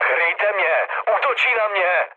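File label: aircraft damaged, Czech, mood med; War Thunder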